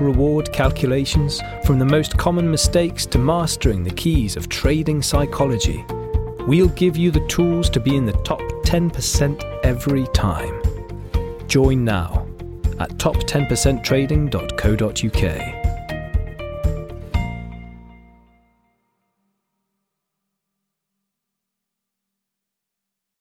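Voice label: E Learning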